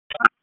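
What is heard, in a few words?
Police Northwest District Dispatch; Raleigh; p25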